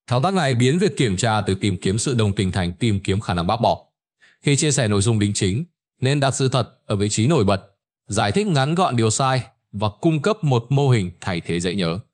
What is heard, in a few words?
narration